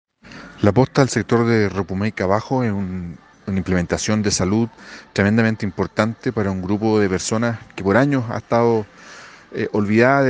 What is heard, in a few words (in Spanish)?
Cuña; Posta Rupumeica Bajo; fondo nacional de desarrollo regional fndr